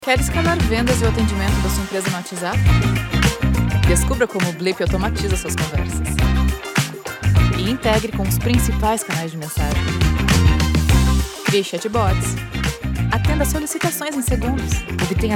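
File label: commercial ad Warm Interesting Enticing female brazilian portuguese voice; Blip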